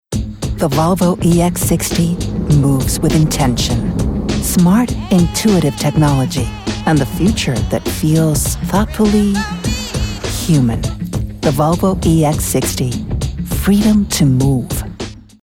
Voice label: spot, english commercial voice over; Volvo